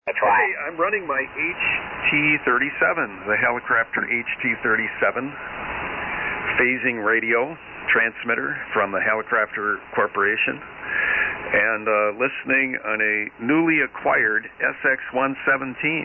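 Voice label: Rig Hallicrafters HT-37 & SX-117 AL-80B Amp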